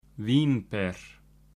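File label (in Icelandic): "vínber", Icelandic; matarhefti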